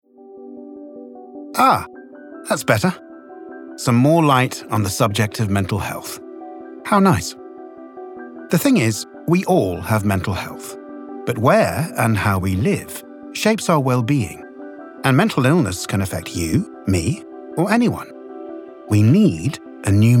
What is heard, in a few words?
Narration; Mental Health Foundation